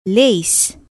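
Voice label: "lace", English; smartkindertech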